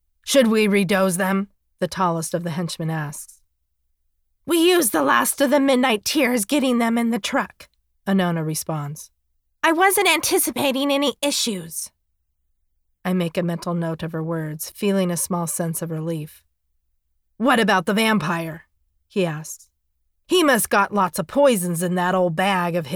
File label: Witch with her men